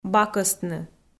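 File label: "бакӧстны", Komi, female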